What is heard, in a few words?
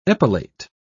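"epilate", British English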